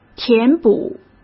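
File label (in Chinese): "填补", Mandarin Chinese; hsk; chinese flashcards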